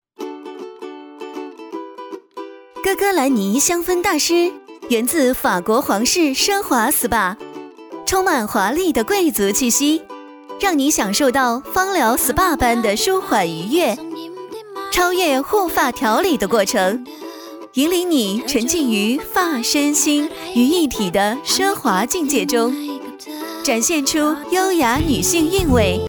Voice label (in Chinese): 女 品牌广告 香氛 清爽版; 香氛大师